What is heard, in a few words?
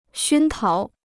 "熏陶", Chinese